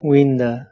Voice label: "winda", Proto Germanic

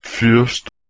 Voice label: "fyrst", Anglo Saxon

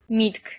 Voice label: "mitk", Armenian

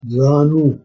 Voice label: "zanu", Urdu

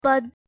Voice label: "pad", Hindi

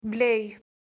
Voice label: "blej", Proto-Germanic

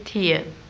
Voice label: "tien", Anglo Saxon

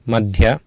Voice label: "madhya", Sanskrit

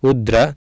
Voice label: "udra", Sanskrit

